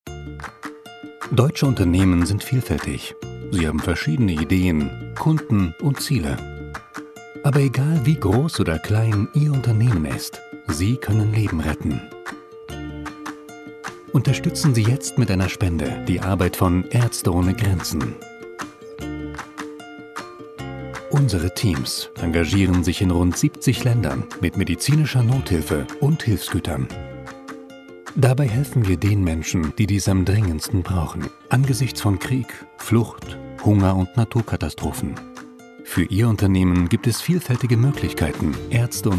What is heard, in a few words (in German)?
Werbung; Aerzte ohne Grenzen